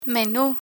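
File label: "menu", Spanish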